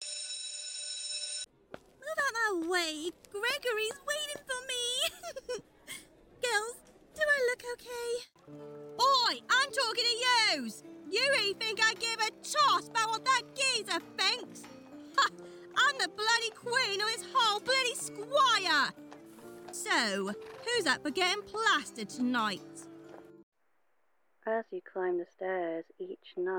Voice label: character